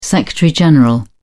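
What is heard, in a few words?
"secretary general", British English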